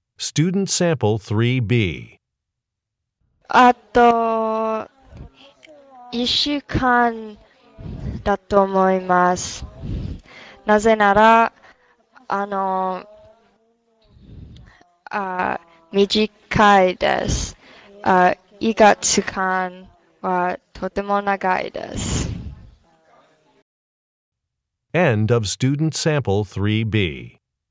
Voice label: japanese conversation